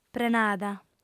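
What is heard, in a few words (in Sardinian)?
"prenada", female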